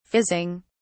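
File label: "fizzing", British English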